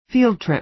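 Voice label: "field trip" American English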